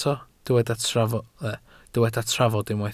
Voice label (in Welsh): "trafod" Welsh